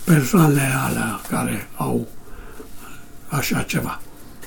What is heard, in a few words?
old man voice speaking about some people; soundscapes